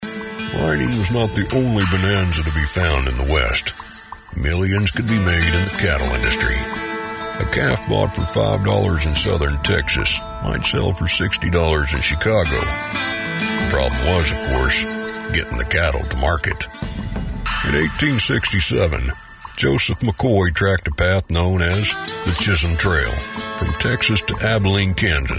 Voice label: narration style